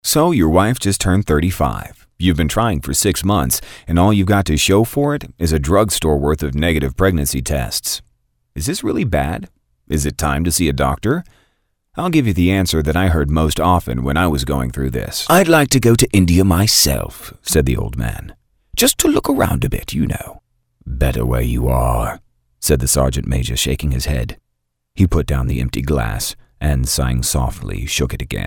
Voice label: Audio Book Narration

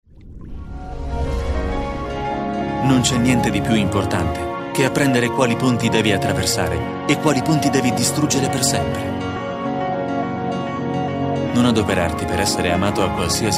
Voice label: Booktrailer

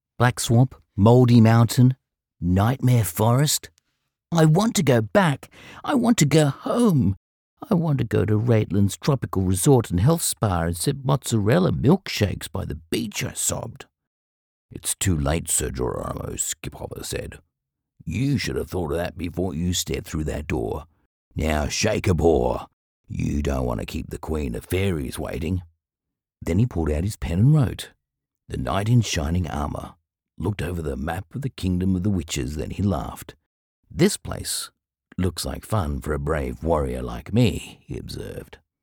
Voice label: childrens book

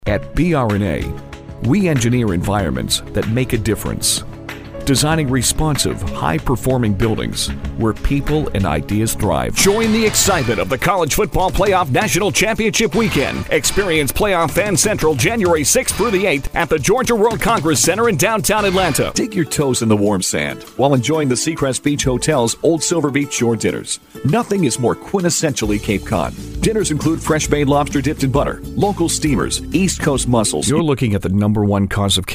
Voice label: commercial various

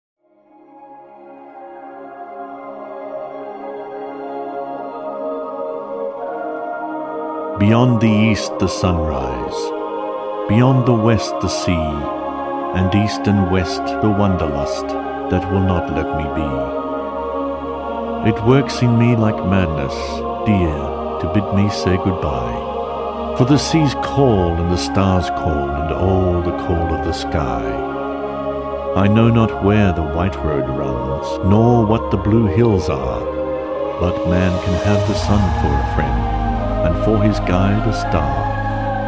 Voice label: with Music; Wanderlust

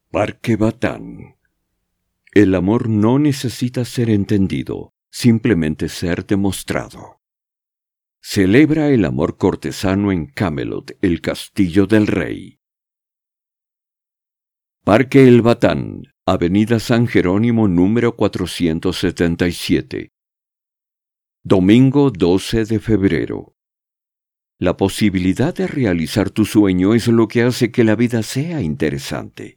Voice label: Spanish; Kamelot Batan

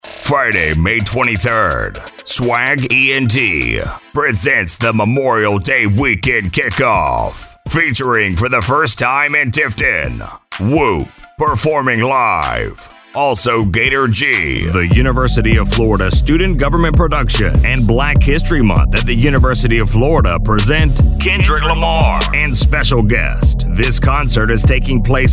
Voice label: MUSIC PROMOS

